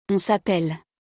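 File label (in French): "on s appelle", French; conjugaison; le verbe s'appeler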